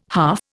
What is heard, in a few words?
"half", Indian